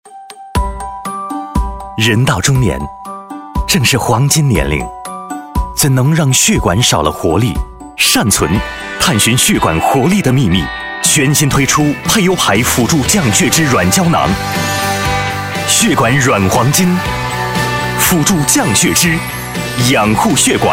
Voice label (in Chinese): 广告 医院医药; 善存血管软胶囊